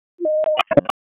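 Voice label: Police Spec Ops Tac, radio; Raleigh; Tac 4; wake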